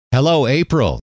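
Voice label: "hello", male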